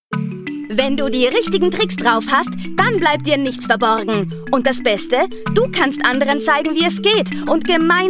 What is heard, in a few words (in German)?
werbung clever club; toggo; Sprecherdatenbank